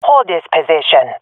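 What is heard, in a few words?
request hold, swat female; vo; Counter Strike Model Pack; CS:GO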